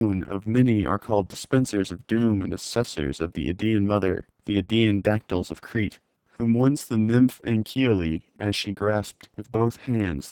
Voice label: mlow 4200 kbps 48k m; metas low bitrate audio codec